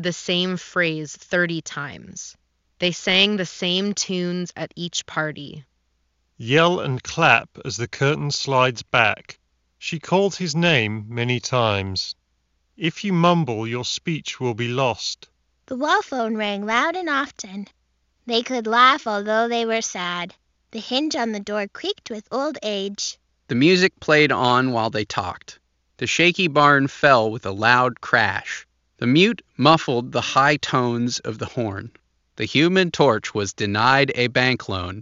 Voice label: Steelseries Arctis 7P Wireless Ideal microphone sample